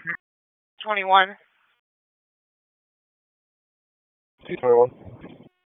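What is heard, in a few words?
Police Northeast District Dispatch, p25; Raleigh; wake p25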